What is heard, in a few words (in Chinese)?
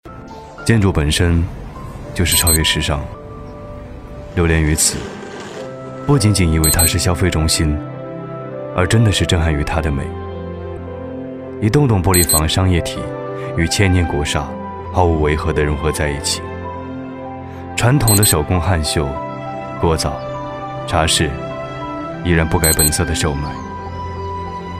独白; 摄影师